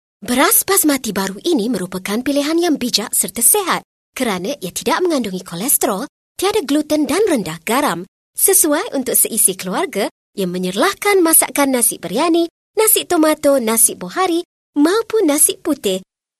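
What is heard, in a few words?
malay female voice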